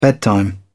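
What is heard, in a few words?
"bedtime", English, British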